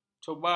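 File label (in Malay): "cobar", Malay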